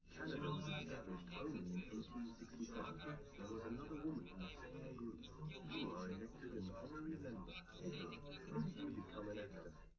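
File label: male3 live recording 130ms 1m, underdetermined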